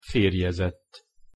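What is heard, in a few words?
"ferjezett", Hungarian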